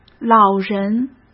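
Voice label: "老人", Mandarin Chinese; hsk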